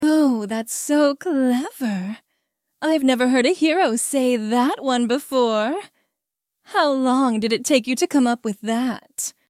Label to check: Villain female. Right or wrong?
Right